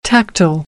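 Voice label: "tactile", American, English; AmericanBritish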